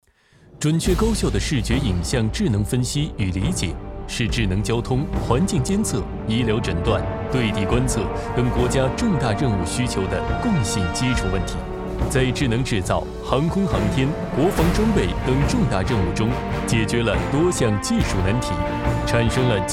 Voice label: 男 专题 科技类; 准确高效的视觉影像

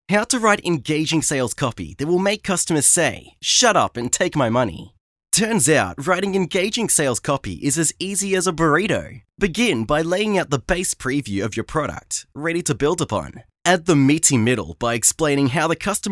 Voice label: 外籍英男-技能讲解

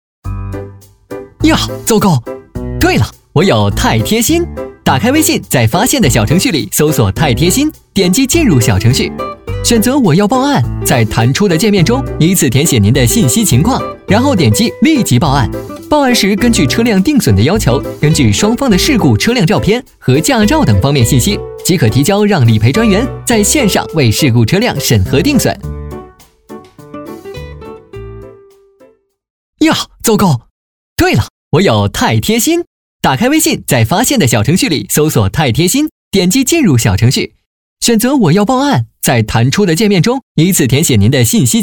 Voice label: 男,MG; 太好赔

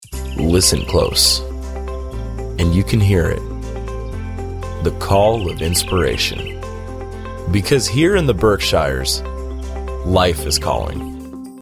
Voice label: 外籍英男, 风景区宣传片

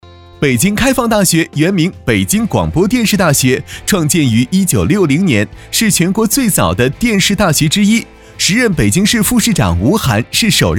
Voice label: 男 学校专题

